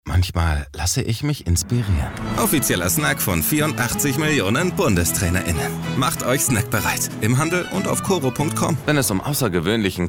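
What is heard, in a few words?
commercial, German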